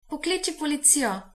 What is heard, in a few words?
police, slovenian